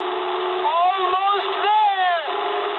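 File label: English near waypoint mood medium; War Thunder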